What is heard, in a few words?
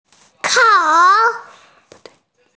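"hao3", Mandarin Chinese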